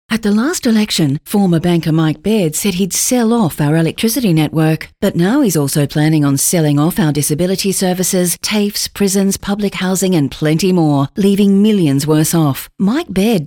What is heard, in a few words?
radio; PSA; Privatisation; Secret Sell-Off